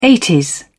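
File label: "eighties", British English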